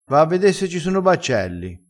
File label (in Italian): "e mi preme", dialettale